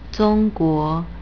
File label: "zhongguo", Chinese; China = Zhong1-guo2